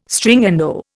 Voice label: "Stringendo", Indian

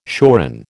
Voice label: "shoran", American

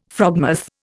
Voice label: "frogmouth", Indian

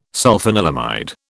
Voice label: "sulfanilamide", British

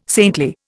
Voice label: "saintly", Indian

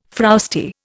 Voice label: "frowsty", Indian

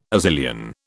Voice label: "Azilian", British

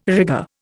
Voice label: "rigger", Indian

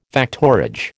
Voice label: "factorage", American

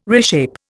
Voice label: "reshape", Indian

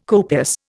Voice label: "copious", Indian

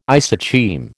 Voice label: "isocheim", American

